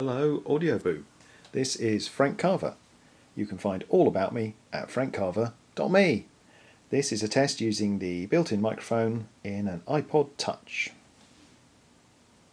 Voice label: test of ipod touch mic

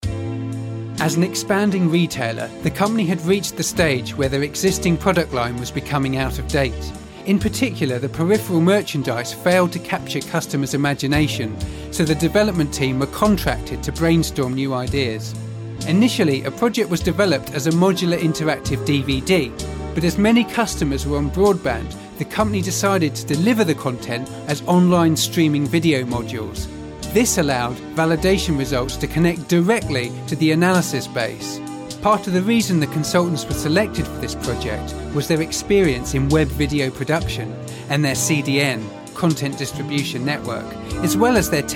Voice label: corporate voice

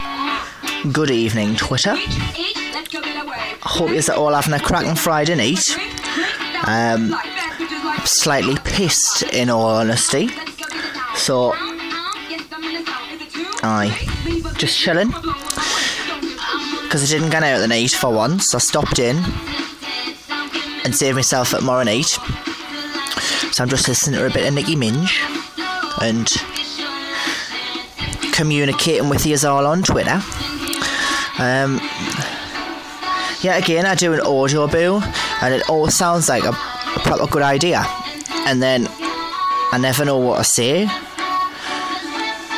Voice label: drunken boo

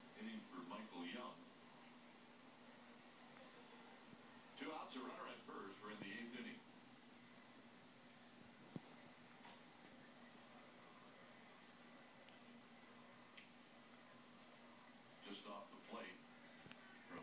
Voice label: baseball on tv

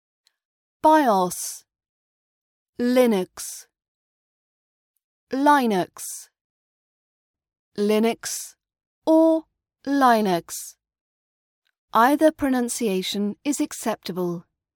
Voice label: bios linux pronunciation in rp british accent

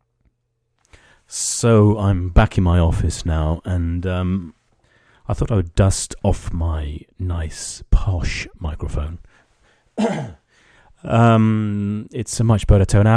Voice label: microphone test

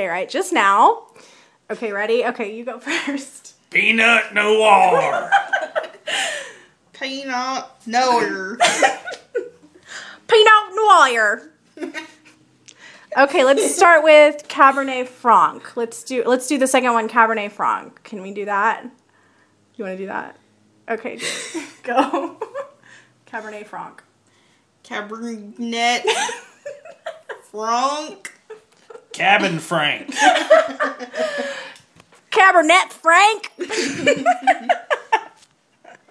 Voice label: wine names in southern accents

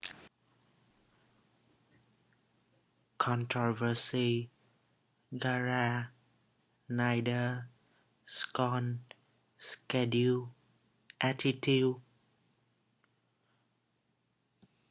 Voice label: southern vietnamese english accent

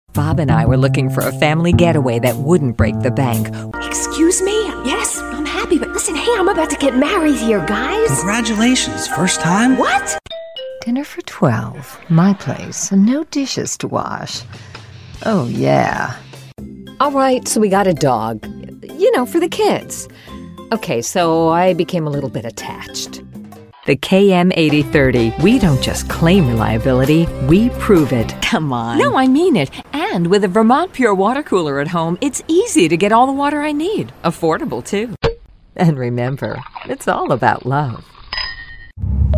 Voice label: commercial soft sell

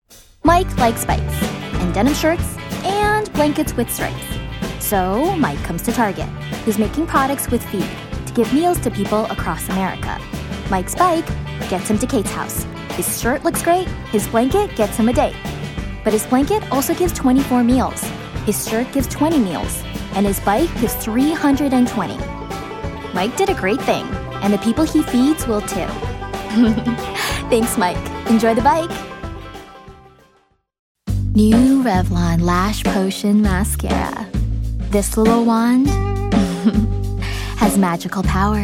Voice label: commercial vo

